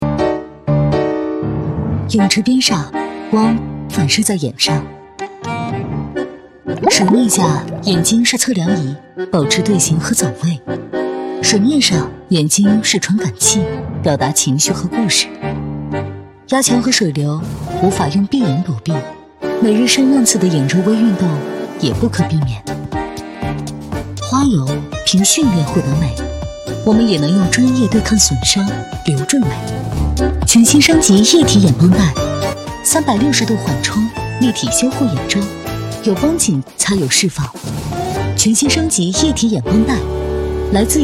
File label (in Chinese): 广告类; 雅诗兰黛